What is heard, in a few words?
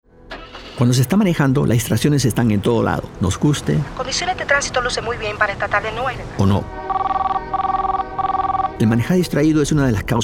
Distracted Driving Radio PSA Spanish